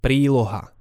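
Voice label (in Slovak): "priloha", Slovak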